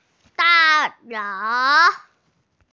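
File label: "da4lou2", Mandarin Chinese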